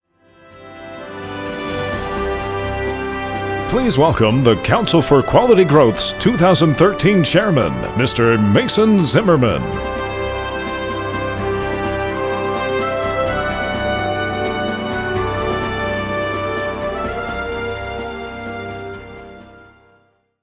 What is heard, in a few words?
announcement; FPT